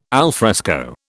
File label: "alfresco", British